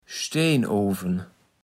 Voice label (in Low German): "Steenoven", platt